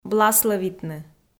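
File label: "бласлӧвитны", Komi, female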